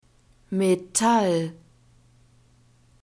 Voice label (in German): "metall", German; nouns gender neuter